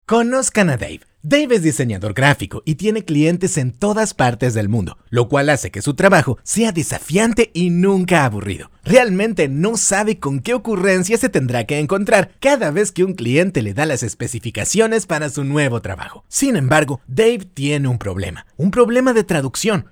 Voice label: Spanish LATAM, M, Explainer

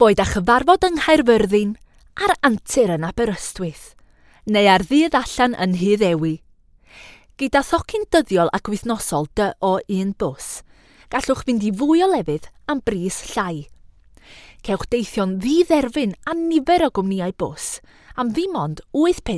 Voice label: Welsh Explainer

